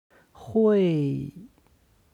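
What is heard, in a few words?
"hui", Chinese; 会; できる; HSK Level One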